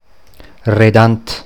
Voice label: Redant Mundart

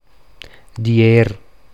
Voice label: "Dier" Mundart

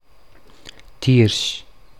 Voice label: Tiers Mundart

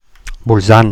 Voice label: Bolzano Mundart

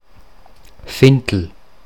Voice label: Vintl Standard

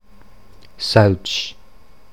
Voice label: Sëuc Mundart

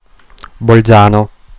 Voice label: "Bolzano" Standard

